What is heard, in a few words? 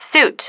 "suit", female